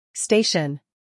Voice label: "station", English

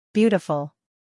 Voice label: "beautiful", English

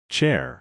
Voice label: "chair", English

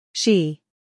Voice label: "she", English

